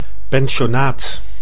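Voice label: "pensionaat", Dutch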